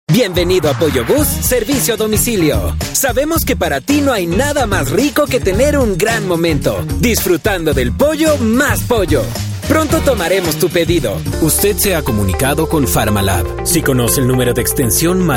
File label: spanish latin american ivr